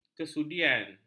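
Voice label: "kesudian", Malay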